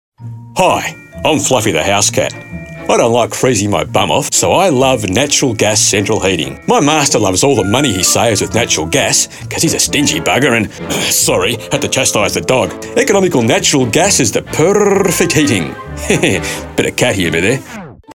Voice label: character

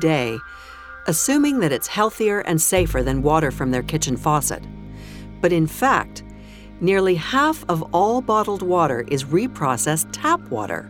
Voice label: narrative

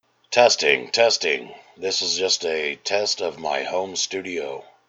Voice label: Home Studio Test

